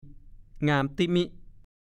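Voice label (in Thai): "งามติ๊มิ" ภาษาโคราช; งามน่ารัก น่าเอ็นดู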